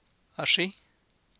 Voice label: "axríj", Copala Triqui